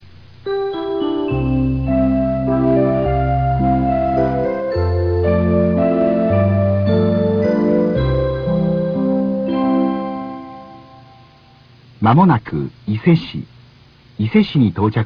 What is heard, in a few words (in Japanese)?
到着前放送; 伊勢市到着前放送